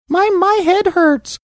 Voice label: saying my my head hurts high pitched

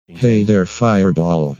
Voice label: computer voice hey there fireball

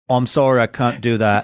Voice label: saying i'm sorry i can't do that cockney

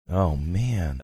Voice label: quietly saying oh man

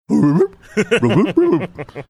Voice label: speaking in bulldog

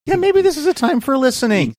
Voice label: cracked voice

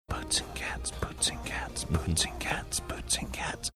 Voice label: whispering boots and cats

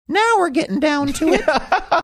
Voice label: saying now were getting down to it funny

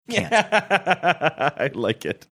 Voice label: laughing saying i like it

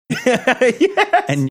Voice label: laughing saying yes

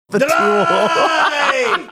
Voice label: yelling "drei"

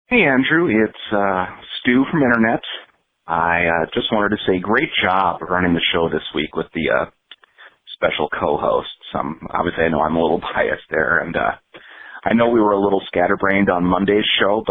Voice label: voicemail message

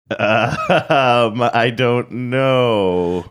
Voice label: drawn out i dont know

